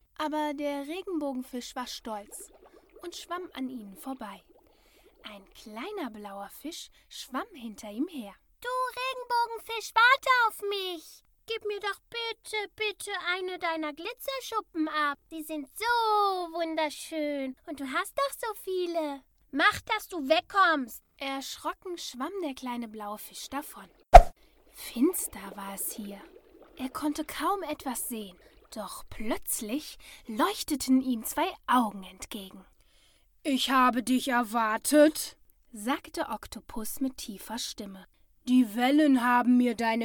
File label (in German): Kinderhoerbuch mit Chargen; Homestudio